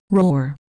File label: roar